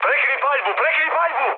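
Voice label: Serbian player friendly fire mood med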